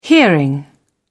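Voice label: "hearing", British English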